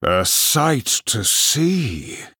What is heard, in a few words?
Male Night Elf, Wow